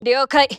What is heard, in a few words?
japanese Idle; azurlane hoi4; azurlane tj